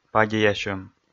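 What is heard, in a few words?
"падеясьӧм", Komi, male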